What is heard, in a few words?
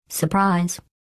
surprise; glados